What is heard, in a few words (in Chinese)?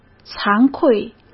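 "惭愧", Mandarin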